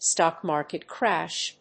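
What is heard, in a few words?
"Stock market crash", English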